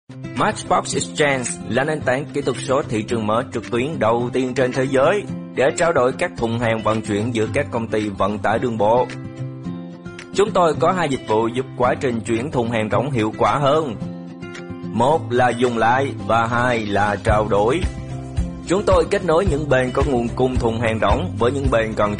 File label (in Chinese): 越南语男声